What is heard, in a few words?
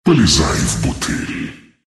dragon bottle, ru